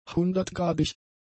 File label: "hundertgradig", German; adjectives